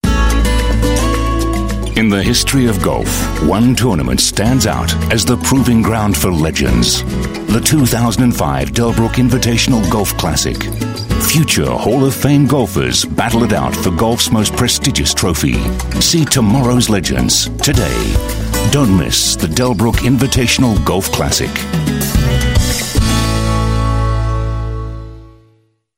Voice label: AU Mid Atlantic English Golf, English Australian Male